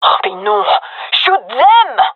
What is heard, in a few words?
taking fire friendly, gendermerie fem; vo